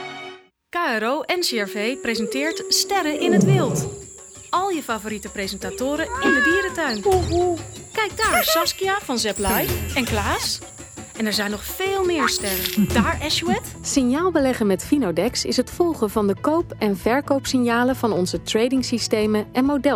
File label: VOICE OVER REEL